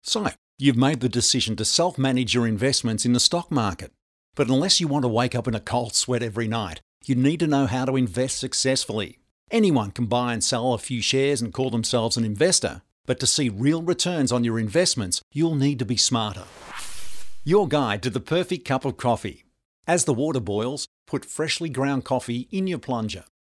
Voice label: Conversational